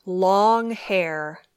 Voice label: "long hair", English